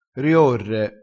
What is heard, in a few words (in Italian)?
"riorre", crespinese